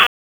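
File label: Raleigh Police North District Tactical, p25; wake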